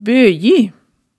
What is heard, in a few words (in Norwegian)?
"bøji", hemsedalsmaalet tale